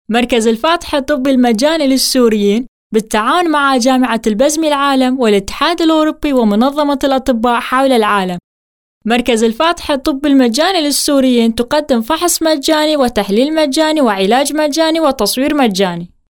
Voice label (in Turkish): ARAPÇA